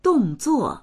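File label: "dong4zuo4", Mandarin Chinese; 动作; 動作；行動する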